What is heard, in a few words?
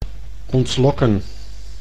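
"ontlokken", Dutch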